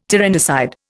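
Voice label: "tyrannicide", Indian English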